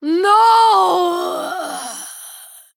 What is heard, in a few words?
Female Draenei Death